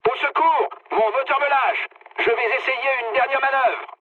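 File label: French damaged engine mood med; War Thunder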